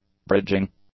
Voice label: "barraging", English; adjectives

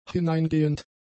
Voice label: "hineingehend", German; adjectives

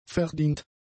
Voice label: "verdient", German; adjectives

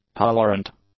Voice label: "tolerant", English; adjectives

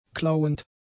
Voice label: "klauend", German; adjectives

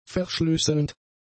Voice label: "verschlüsselnd", German; adjectives

